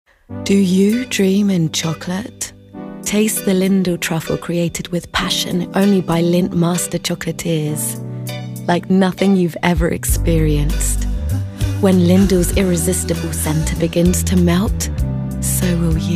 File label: female English commercial; Lindor; Spanish Castilian